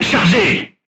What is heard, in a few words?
voice message load, crew tanks french